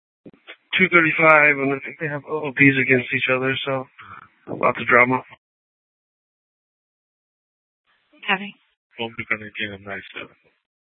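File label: police dispatch radio, scanner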